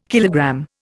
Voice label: "kilogram", Indian